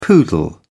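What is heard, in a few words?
"poodle", British English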